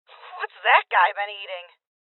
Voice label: Voices Heavy Spotted Female; rebel cops 2019 gamerip

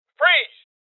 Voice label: Voices Freeze Male Old; rebel cops 2019 gamerip

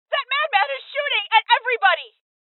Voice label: Female; rebel cops 2019 gamerip

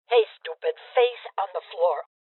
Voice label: Order To Surrender Female Old

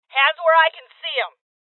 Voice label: Order To Surrender Female, Voices

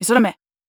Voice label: neutral short

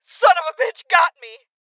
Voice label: Voices Injury Female

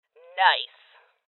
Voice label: Voices Loot Collect Female; Rebel Cops

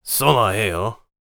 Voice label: warrior conversation emotion question

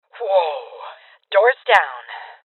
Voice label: Voices Door Kick Female Old; rebel cops 2019 gamerip

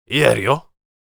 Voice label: warrior conversation emotion question

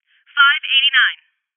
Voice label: Voices Trap Bomb Spotted Female

